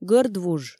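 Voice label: "гӧрд вуж", Komi, female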